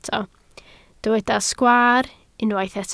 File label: "sgwaar", Welsh